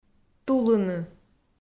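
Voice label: "тулыны", Komi, female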